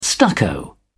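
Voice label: "stucco", English, uk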